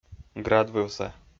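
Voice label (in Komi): "градвывса", Komi, male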